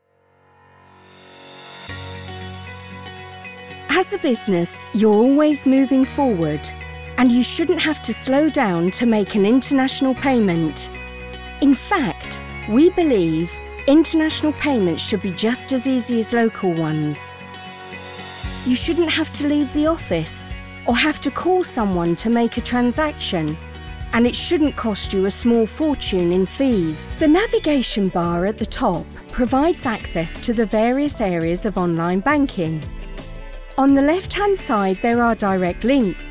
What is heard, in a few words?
Narrative